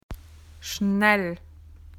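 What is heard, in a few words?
"fast", Australian English; PictureDictionary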